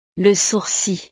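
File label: "le sourcil", French; partes del cuerpo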